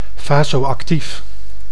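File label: "vasoactief", Dutch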